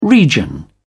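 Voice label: "region", British English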